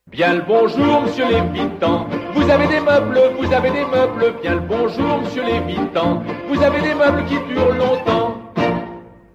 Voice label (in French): radio pub spot